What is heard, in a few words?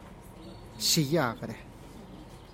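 "xi giaj re", Teotitlán del Valle Zapotec; what plant is this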